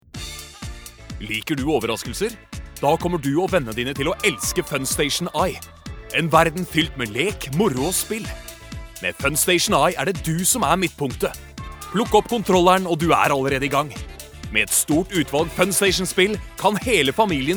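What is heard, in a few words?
Norwegian cheerful, male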